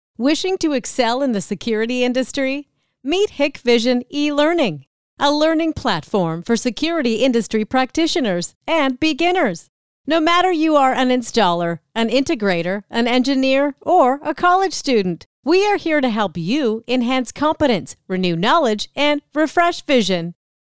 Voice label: 外籍 欢快

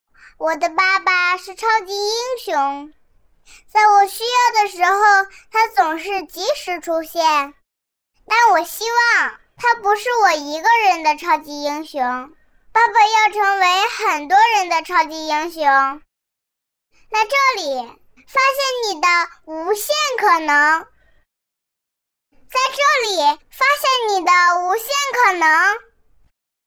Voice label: 真女童; 我的爸爸是超级英雄

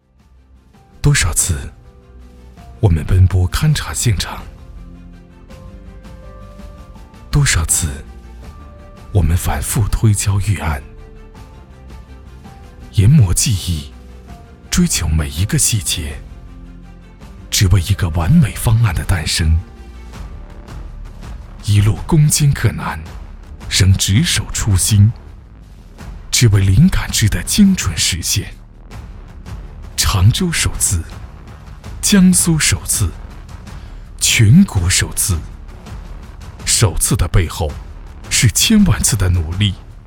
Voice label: 旁白 电力; 常州电力

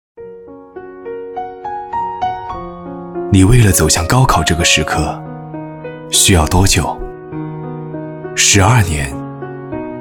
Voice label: 走心舒缓; 高考助力

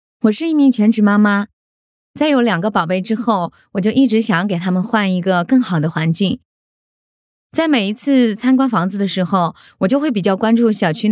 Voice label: 素人; 妈妈悦天府

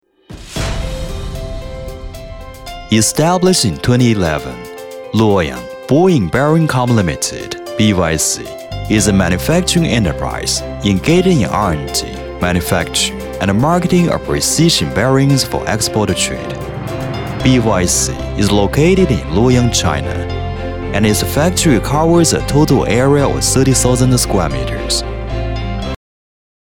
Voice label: 英文企业宣传片 大气力量 轴承; 博盈轴承

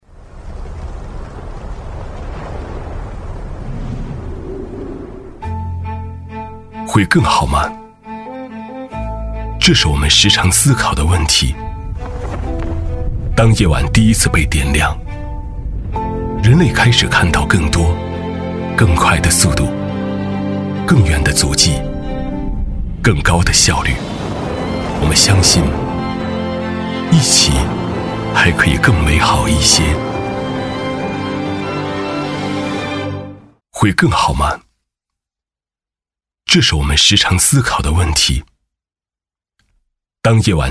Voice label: 高端城市宣传 新能源形象宣传片; 阳光新能源

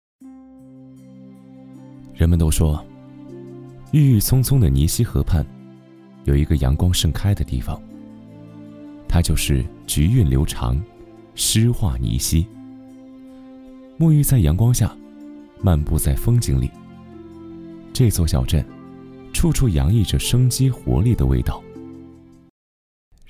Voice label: 叙述; 记录; 小镇

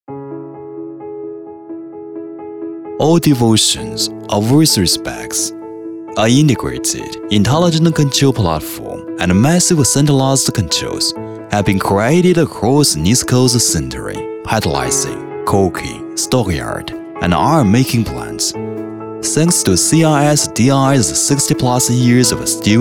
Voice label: 英文企业宣传片 讲解; 金南钢铁区智慧中心

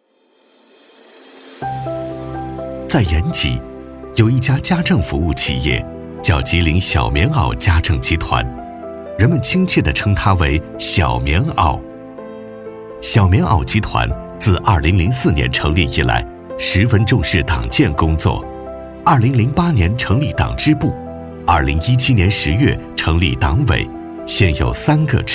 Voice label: 专题 家政集团党建 温馨; 吉林小棉袄家政集团党建

